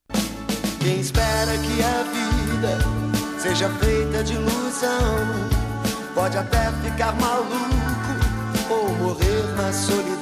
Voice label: Dia das Maes jovens, M

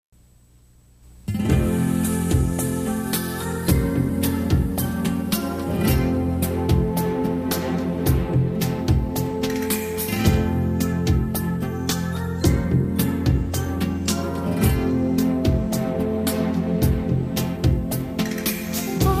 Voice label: Amizade Mensagem pra Amizade, F

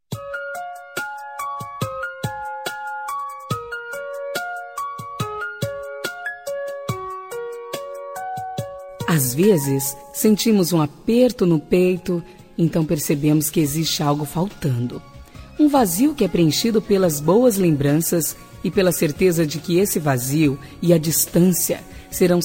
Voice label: Amizade Com Saudades, F

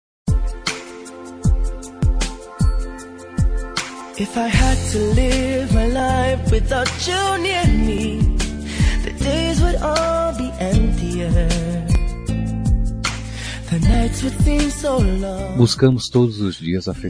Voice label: Romântica

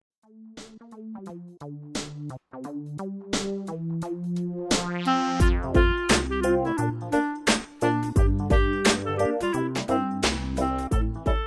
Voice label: Feliz Natal Com Gozacao

